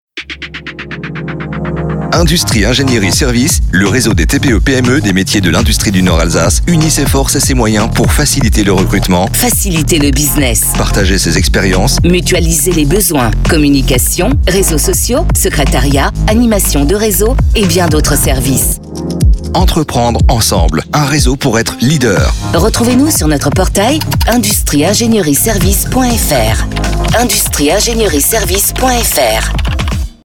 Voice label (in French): spot radio